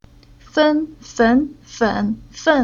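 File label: "Fen", Chinese syllable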